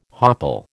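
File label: "hopple", American English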